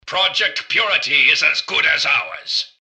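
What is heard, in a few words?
greeting, robot; dialoguecitadel; mrgutsy; npc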